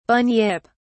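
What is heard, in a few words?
"bunyip", British English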